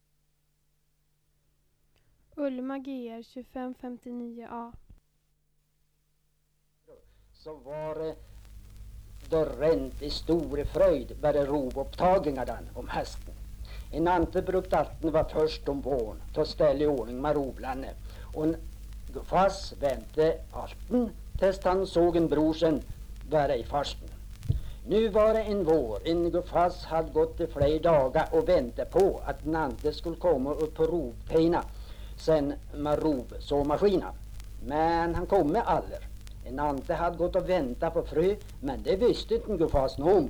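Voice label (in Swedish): Mörsils Jämtland, Svenska sagor, dialekter; Folkminnen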